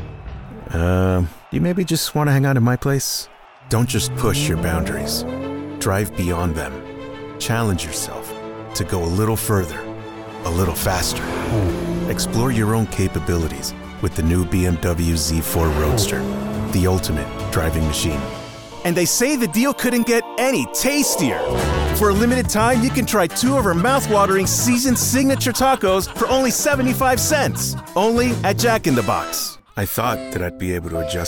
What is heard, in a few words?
Commercial, Voice Over